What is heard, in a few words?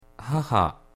"haha", japanese